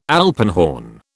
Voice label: "alpenhorn", British

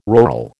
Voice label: "rural", American

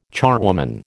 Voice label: "charwoman", American

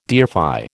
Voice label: "deify", American

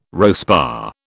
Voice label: "RoSPA", British

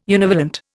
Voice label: "univalent", Indian

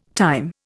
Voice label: "time", Indian

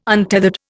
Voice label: "untethered", Indian

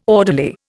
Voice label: "orderly", Indian

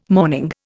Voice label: "mourning", Indian

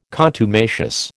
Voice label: "contumacious", American

